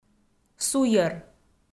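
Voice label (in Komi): "суйӧр", Komi, female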